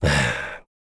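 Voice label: Vox Deny, Korean; Kain; kingsraid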